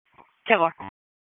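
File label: Police Northeast District Dispatch, Raleigh; wake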